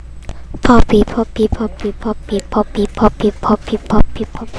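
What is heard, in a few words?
"puppy", spoken word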